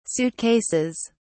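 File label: "suitcases", British English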